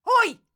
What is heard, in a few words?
Ranged Female Alert Line, Thug Spotted; NPC; Heist